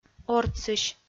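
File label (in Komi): "ортсысь", Komi, female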